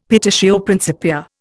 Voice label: "petitio principia", Indian; Dictionary